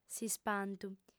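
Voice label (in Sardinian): "s'ispantu", female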